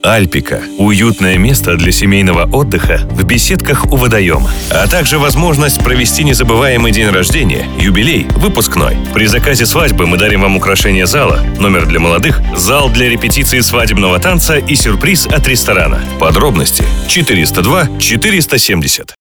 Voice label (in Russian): muzhskoj